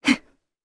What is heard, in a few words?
Vox Happy, jp; voices; heroes; Hilda; kingsraid